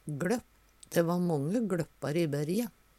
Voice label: "gLøpp", Numedalsmål; Substantiv hankjønn

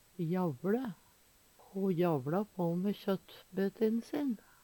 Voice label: "javLe", Numedalsmål

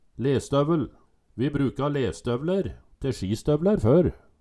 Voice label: lestovel, Numedalsmål

